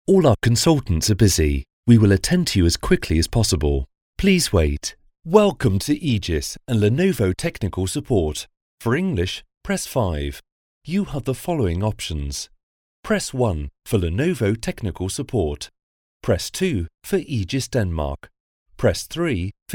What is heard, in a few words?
english uk male narrative